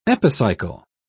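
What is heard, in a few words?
"epicycle", British English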